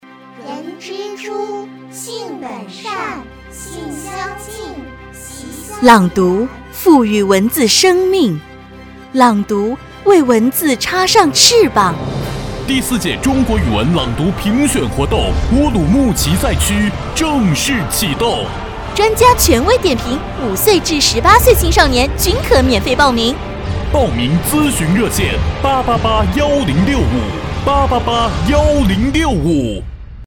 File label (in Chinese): 女 中文广告 童声和女声